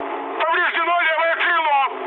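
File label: Russian left wing mood high, speech; War Thunder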